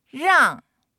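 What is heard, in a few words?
"rang4", Mandarin Chinese; 让; 譲る、～させる、～される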